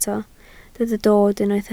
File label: "dod", Welsh